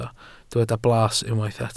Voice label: "blas", welsh